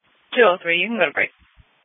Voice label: Police Dispatch